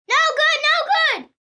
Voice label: No Good No Good, Boo, 1 boy, 8 yrs, Booing